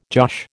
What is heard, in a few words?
"josh", American